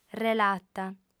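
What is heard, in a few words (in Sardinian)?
"relata", female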